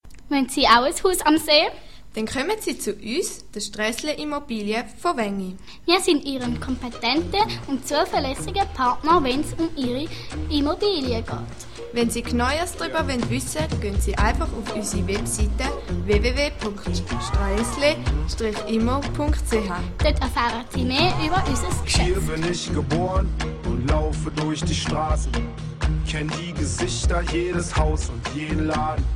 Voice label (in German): Werbung; Straessle